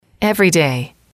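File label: "everyday", English, female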